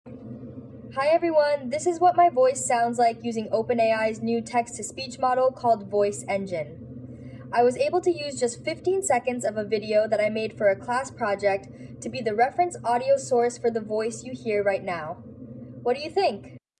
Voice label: Voice Engine Generated; OpenAI; Lifespan